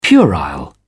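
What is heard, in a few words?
"puerile", British English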